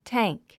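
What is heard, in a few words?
"tank", English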